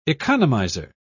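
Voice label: "economizer", British English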